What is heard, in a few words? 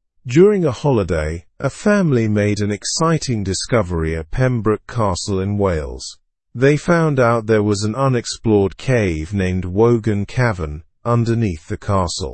english through news lower intermediate; family s holiday leads to ancient discovery